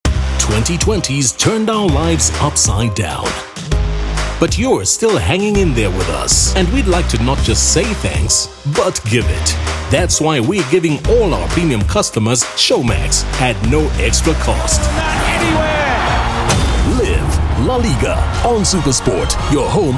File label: Promo Mashup; OSM Talent